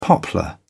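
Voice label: "poplar", British English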